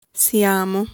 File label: "Siamo", Italian